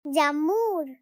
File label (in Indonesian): Suara Ceria Anak "Jamurr!"